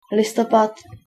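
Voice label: "listopad", Czech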